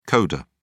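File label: "coder", English, British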